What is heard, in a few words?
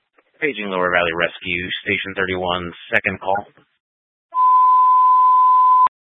Fire Department Dispatch; Grand Junction